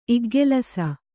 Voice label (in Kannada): "ಇಗ್ಗೆಲಸ", kannada